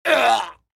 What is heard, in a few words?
Soldier Male